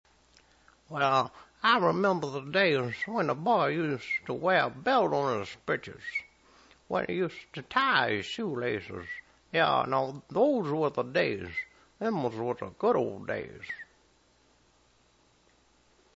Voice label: Old Man